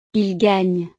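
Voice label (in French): "ils gagnent", French; Ellos ganan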